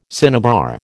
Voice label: "cinnabar", American